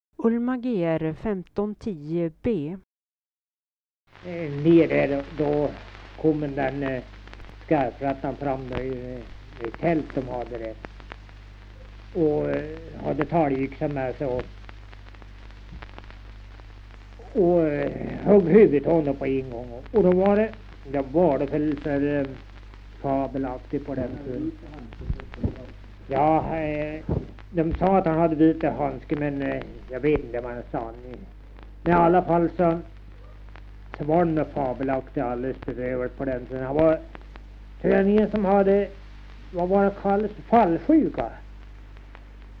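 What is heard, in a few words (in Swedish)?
Tuna Medelpad, dialekter; Folkminnen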